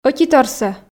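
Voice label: "ӧтиторсӧ", Komi, female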